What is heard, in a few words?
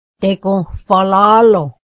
peach, mohawk